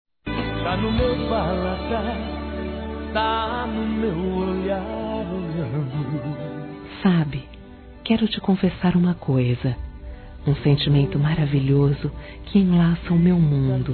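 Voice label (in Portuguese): Amor a Moda Antiga, Feminino; Telemensagens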